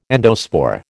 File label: "endospore", American